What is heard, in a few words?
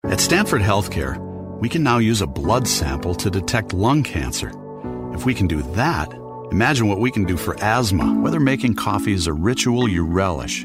quiet reads